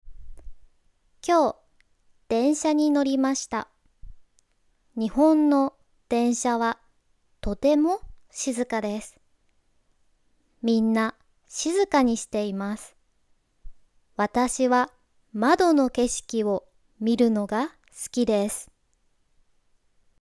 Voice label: slow japanese trains, beginner japanese reading practice